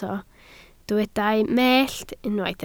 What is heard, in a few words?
"mellt", Welsh